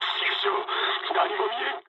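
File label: searchlite mood med, speech japanese; War Thunder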